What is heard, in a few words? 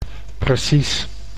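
"precies", Dutch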